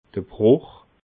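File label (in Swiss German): "bruch", Alsatian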